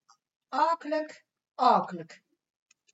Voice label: "Aokelik", dialect Sprang-Capelle

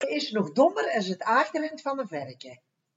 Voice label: Aachterend Ze is nog dommer es het aachterend, dialect Sprang-Capelle

